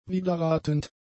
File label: "widerratend", German; adjectives